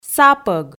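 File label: "сапӧг", Komi, female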